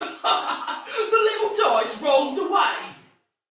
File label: Sight Lost Shout, Female, Soldier; Dialog; Barks; Battle; Sinecure